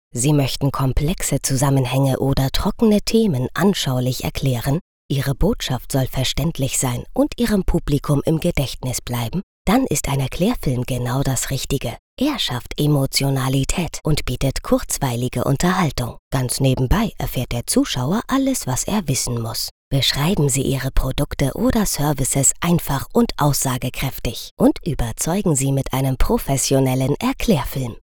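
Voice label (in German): erklaerfilm, Sprecherin; pur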